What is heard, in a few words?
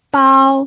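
"bao", pinyin, first tone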